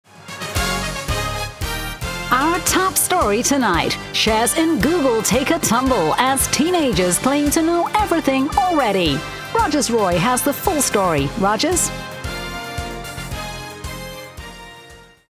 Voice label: AMERICAN ANCHOR